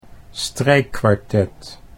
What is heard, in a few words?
"strijkkwartet", Dutch